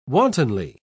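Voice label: "wantonly", English, British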